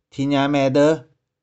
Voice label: "thie1niang3mae2deu5", 玉環

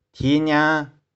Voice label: "thie1niang3", 玉環

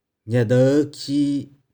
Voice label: "nih4deu1khi3", 玉環

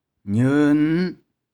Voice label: "nieu1n5", 玉環

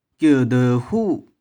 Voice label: "kieu2deu1hu6", 玉環

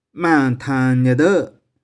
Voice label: "mang2thang3nih4deu1", 玉環

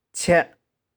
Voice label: "chih4", 玉環

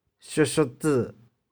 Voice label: "shioh4shioh4tsy2", 玉環

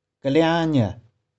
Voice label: "keh4liang2nih4", 玉環

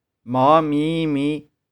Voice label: "mau5mi5mi5", 玉環

